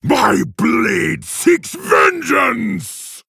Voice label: Male Orc; Blademaster; Uther